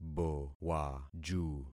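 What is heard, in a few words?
"bowaju", concatenated syllables; Affixing experiment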